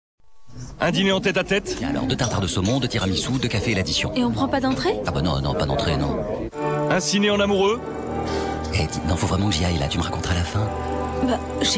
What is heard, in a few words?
promo; cplussport; match du jour